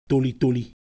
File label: Ewe Motion, Ideo Phones